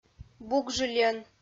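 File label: "бугжыльӧн", Komi, female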